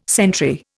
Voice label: "sentry", Indian